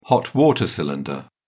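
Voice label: "hot-water tank", British English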